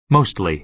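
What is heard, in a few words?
"mostly", American English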